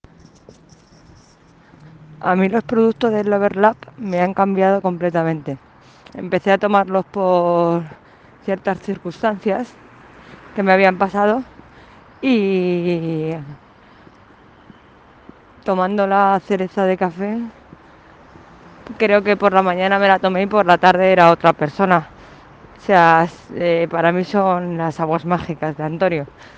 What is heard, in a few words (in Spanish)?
TESTIMONIO